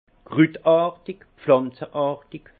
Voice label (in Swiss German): "krutartig", Alsace; vin; végétal